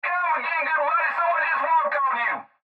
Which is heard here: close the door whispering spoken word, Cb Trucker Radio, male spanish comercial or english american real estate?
Cb Trucker Radio